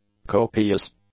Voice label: "copious", English; adjectives